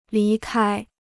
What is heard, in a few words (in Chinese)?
"离开", Chinese